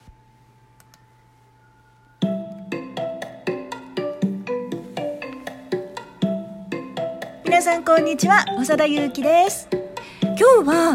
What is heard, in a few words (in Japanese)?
フリートーク